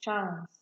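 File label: "chance", Nigerian Pidgin, female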